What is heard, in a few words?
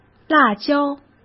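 "辣椒", Mandarin Chinese; chinese flashcards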